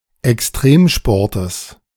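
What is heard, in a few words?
"Extremsportes", German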